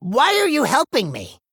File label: Male Gnome; Scabbs